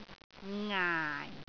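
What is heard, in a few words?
"ngaai4", Cantonese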